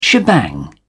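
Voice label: "shebang", British English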